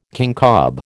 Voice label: "kincob", American

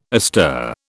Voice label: "astir", British

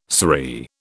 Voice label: "saree", British

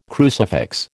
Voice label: "crucifix", American

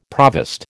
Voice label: "provost", American

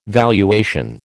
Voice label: "valuation", American

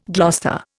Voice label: "Gloucester", Indian

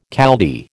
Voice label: "Chaldee", American

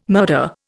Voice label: "murder", Indian

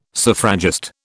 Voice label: "suffragist", British

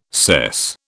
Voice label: "sess", British

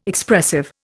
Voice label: "Expressive", Indian

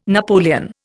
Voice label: "napoleon", Indian

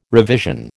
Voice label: "revision", American

